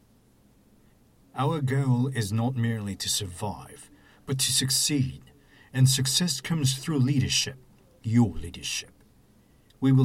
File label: English (British Accent)